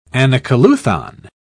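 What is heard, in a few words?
"anacoluthon", British English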